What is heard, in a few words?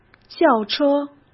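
"轿车", Mandarin Chinese; hsk; chinese-flashcards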